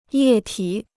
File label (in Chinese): "液体", Chinese